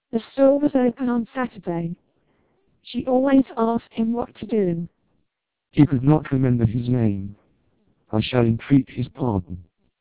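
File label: British speech, TWELP 480 bps vocoder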